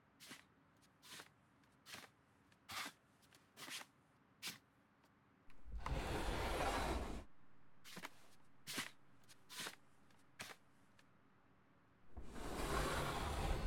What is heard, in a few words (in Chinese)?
和母女睡前闲聊